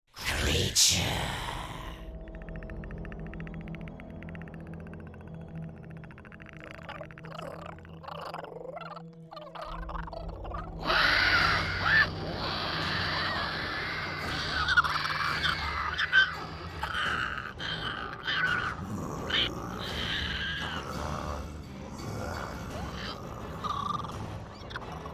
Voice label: creature